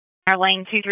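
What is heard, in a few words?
Dispatch, p25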